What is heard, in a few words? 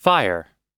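"fire", US English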